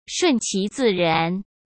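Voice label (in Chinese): "顺其自然", Mandarin Chinese; hsk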